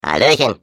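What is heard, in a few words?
Defective Turret turret defect return, German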